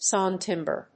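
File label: "Sawn Timber", English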